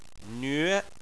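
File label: "nve" second tone, Chinese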